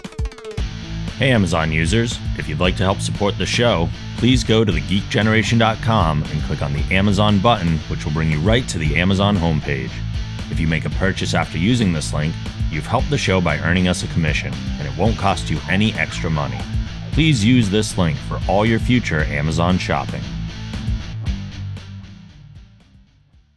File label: amazon ad